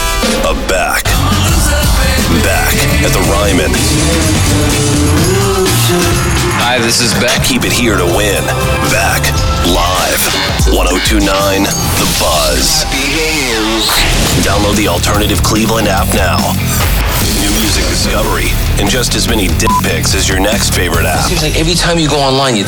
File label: radio imaging men alt rock